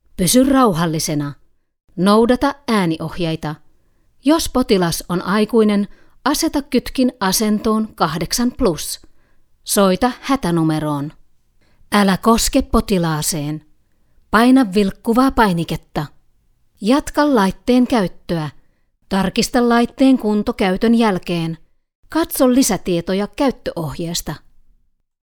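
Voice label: female defibrillator prompt, Finnish; Medical Narrations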